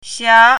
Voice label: "xia2", Chinese pinyin

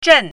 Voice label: "zhen4", Chinese pinyin

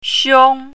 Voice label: "xiong1", Chinese pinyin

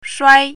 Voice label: "shuai1", Chinese pinyin

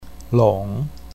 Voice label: "long3", Chinese pinyin

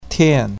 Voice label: "tian4", Chinese pinyin

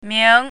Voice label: "ming2", Chinese pinyin

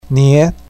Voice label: "nie2", Chinese pinyin

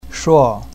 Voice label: "shuo4", Chinese pinyin

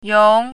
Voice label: "yong2", Chinese pinyin

